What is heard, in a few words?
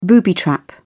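"booby-trap", British English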